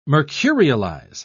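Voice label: "mercurialize", British English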